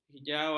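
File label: "kehijauan", Malay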